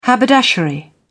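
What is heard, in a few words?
"haberdashery", British English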